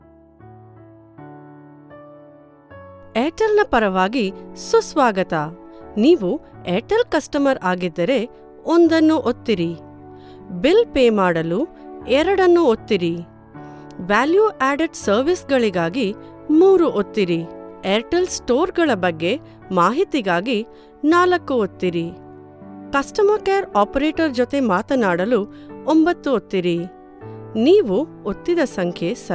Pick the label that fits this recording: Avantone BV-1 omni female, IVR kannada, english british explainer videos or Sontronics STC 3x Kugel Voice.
IVR kannada